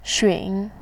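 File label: "xun", Mandarin Chinese, tone 3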